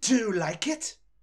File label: hit, voices angry pursuit; DanisRace